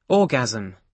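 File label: "orgasm" English, British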